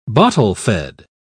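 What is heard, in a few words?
"bottle-fed", British English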